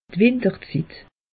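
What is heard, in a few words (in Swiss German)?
"winterzit", Alsatian; meteo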